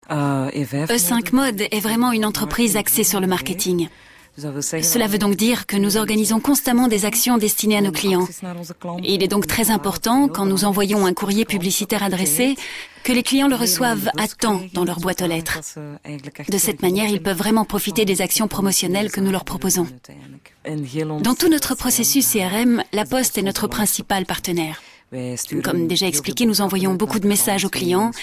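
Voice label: FR F corporate, French, female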